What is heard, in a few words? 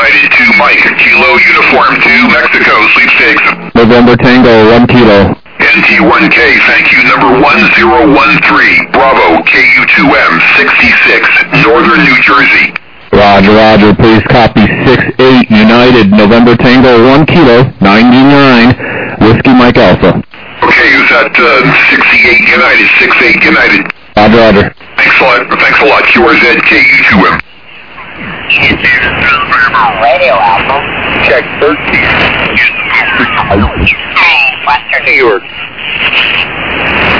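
lower sideband 7MHz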